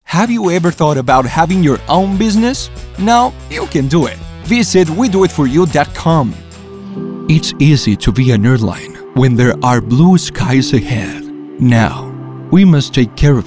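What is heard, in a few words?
Spanish Latin American, English accent; GoLocalise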